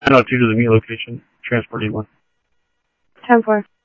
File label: PD Police, scanner audio